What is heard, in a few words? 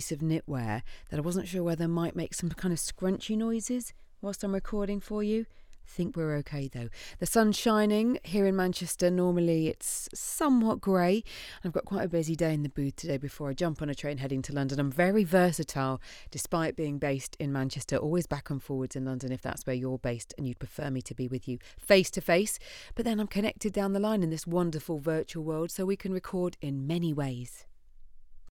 Studio sample